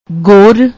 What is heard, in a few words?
"gor", Punjabi; ਗੋਰ